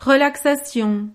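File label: "relaxation", French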